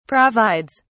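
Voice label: "provides", British English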